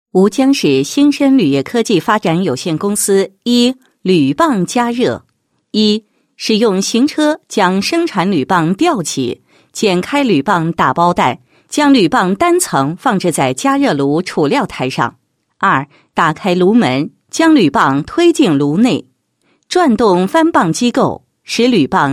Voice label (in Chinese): 女 解说专题, 工艺流程解说; 新申铝业